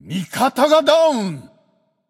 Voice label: notify slain ally, japanese; caster